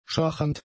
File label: "schachernd", german; adjectives